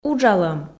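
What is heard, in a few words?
"уджалӧм", Komi, female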